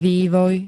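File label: "vývoj", Slovak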